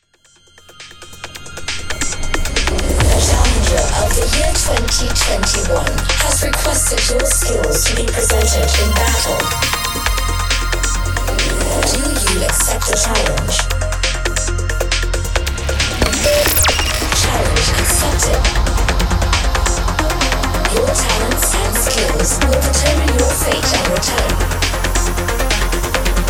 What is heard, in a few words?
EDM VO Music